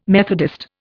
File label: "Methodist", Indian